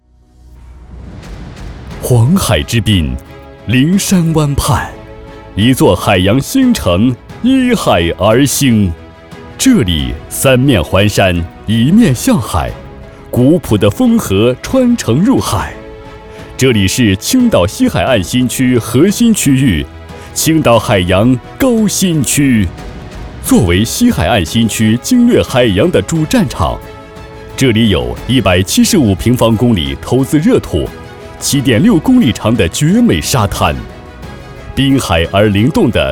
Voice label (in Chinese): 大气饱满城市宣传片